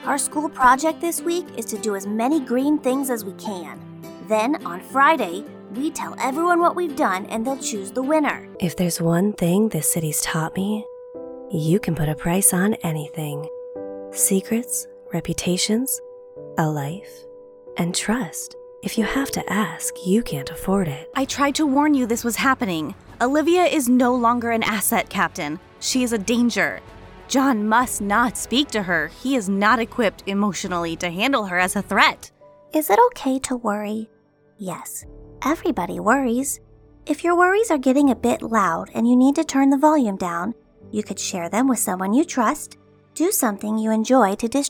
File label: Animation